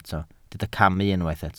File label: "camu", welsh